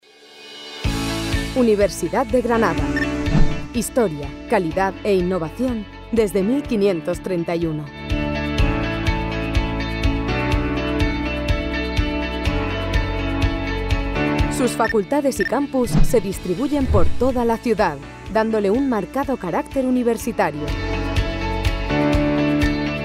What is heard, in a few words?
ES Institutional; banco de voces